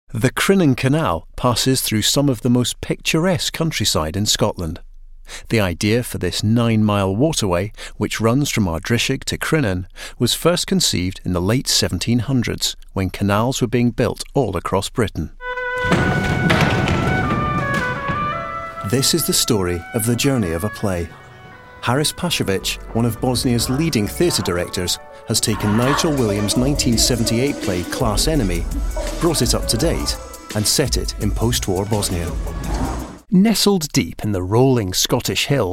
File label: Documentary Montage